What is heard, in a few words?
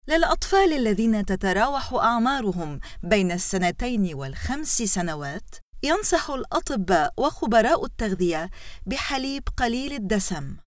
arabic corporate videos